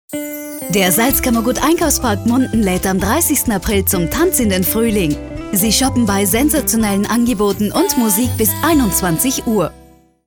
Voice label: radio commercial kurzspot